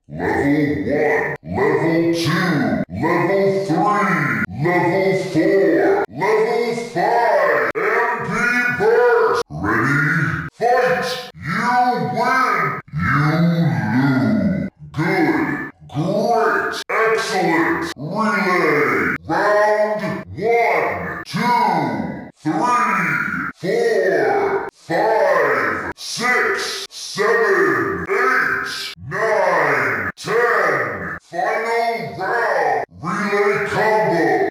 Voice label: English Announcer